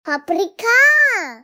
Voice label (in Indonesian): Suara Ceria Anak "Paprikaa!"